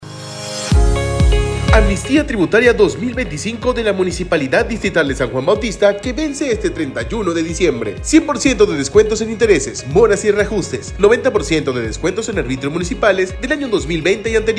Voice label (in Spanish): SPOT RADIAL; DICIEMBRE RENTAS 2025